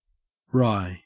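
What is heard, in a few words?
"wry", Australian English; pronunciations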